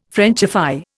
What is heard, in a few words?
"Frenchify", Indian